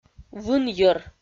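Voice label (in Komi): "вынйӧр", Komi-Zyrian, female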